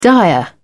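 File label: "dire", British English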